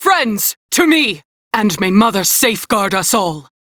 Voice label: Crew Near Death, Female; Dialog; en; Warframe; Railjack Crew; New Loka